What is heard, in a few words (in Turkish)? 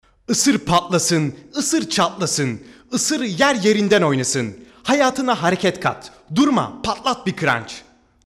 REKLAM